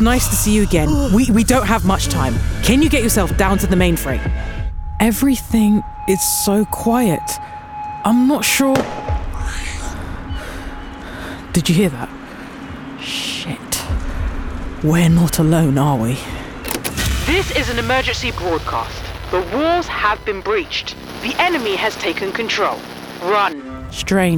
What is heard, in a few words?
Gaming, voice-over